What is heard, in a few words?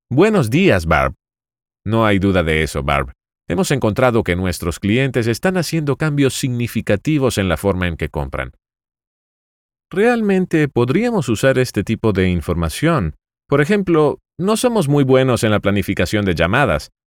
eLearning Character